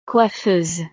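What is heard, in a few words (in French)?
"coiffeuse", French; profesiones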